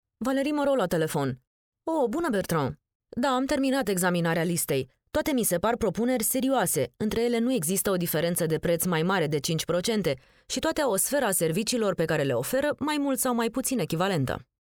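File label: romanian female commercial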